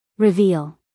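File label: "reveal", American English female; everyone-can-use-english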